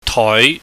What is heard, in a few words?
"toi", pingyam